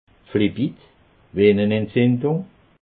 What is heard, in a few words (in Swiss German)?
"flebit", alsace